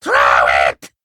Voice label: Male Kobold Attack

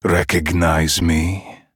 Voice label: Male Human Attack

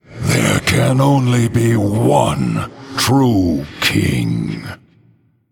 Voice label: Male Human

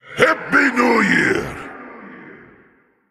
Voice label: Male Elemental Emote HAPPY NEW YEAR

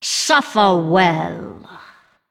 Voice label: Female Undead Hero Power

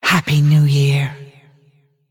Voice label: Female Dragon happy new year lunar

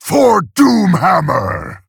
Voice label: Male Orc; Thrall; Garrosh

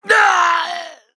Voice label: Male Dwarf Death

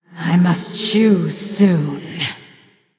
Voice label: Female Blood Elf Time; Valeera Deathknight

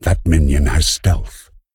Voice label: Male Dragon Emote ERROR STEALTH

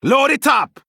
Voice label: Male Troll Trigger

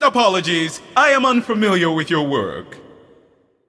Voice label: Male Void Elf Emote Start; Inzah

